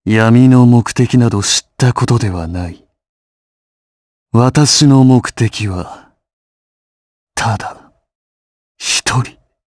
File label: vox, jp; Shakmeh; kings raid